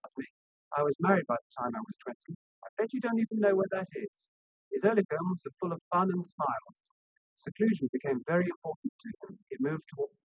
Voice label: male; SiSEC10; underdetermined

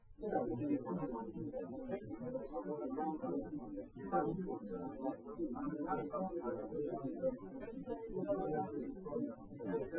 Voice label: male liverec 250ms 5cm

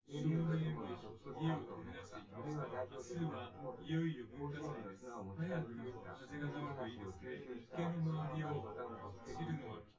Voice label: male synth conv

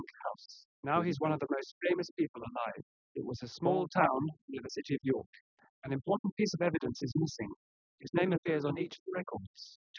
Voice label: male inst; SiSEC10; underdetermined

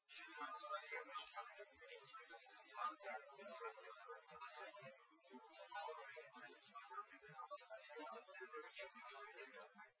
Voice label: male liverec 250ms 5cm

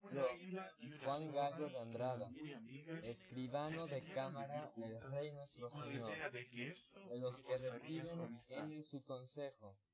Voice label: male4 inst sim

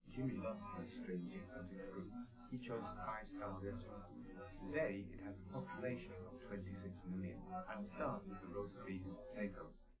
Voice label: male live rec 5cm sim; SASSEC07

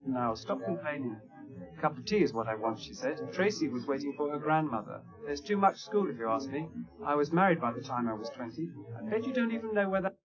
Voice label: male; SiSEC11; underdetermined

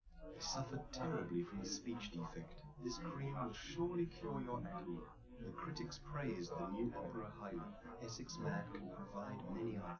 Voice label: male live rec; SiSEC10; underdetermined

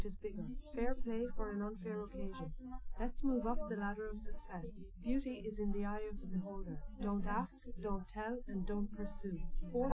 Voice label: female, live rec; SiSEC08; SiSEC underdetermined

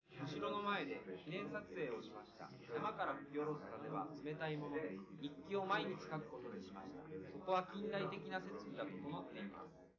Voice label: male4 liverec 250ms 5cm sim

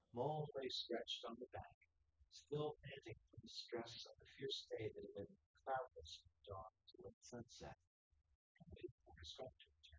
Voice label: male4 synthconv; SiSEC08; SiSEC underdetermined

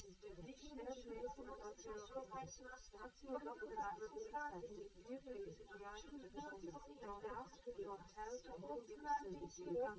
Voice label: female liverec; SiSEC11; underdetermined

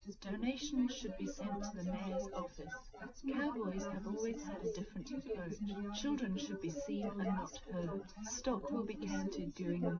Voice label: test female liverec 250ms 1m src; SiSEC08; SiSEC underdetermined

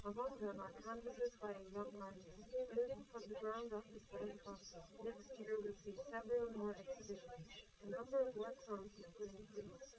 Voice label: female liverec; SiSEC11; underdetermined

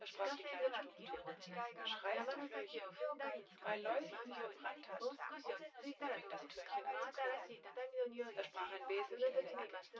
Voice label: female synthconv

